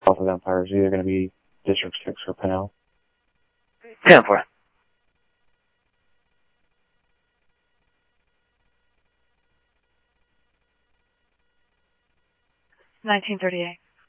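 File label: Sheriff East County, scanner audio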